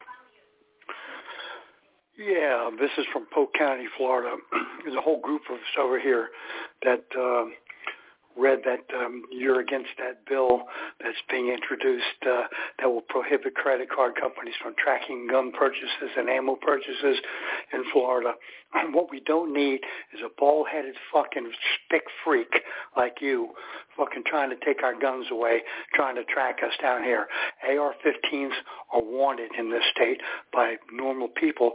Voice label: Voice Message; Senator Torres